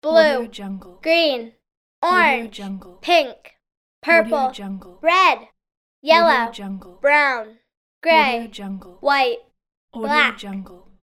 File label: girl saying basic colors; floraphonic